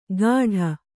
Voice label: "ಗಾಢ", Kannada

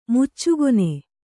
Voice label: "ಮುಚ್ಚುಗೊನೆ", kannada

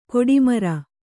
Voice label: "ಗಡಿಮೀರು", Kannada

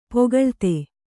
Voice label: "ಪೊಗಳ್ತೆ", kannada

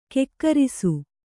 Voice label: "ಖೇಕರಿಸು", kannada

